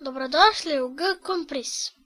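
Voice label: welcome, Brazilian Portuguese; gcompris-data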